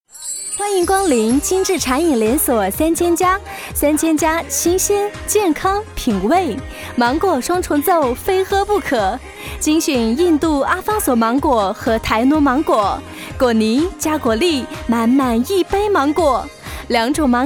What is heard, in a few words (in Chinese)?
女 果汁广告（甜美）